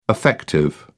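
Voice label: "affective", English, uk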